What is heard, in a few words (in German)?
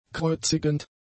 "kreuzigend", German; adjectives